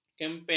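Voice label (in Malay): "kempen", Malay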